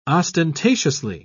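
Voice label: "ostentatiously", British English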